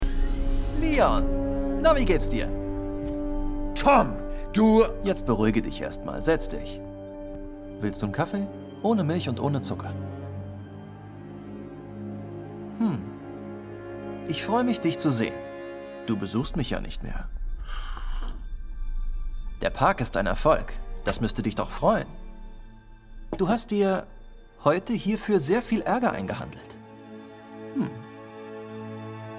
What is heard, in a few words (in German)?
Synchron de Animation Tech Unternehmer Boesewicht laessig ueberheblich; Boonie Bears; LOFT VOICES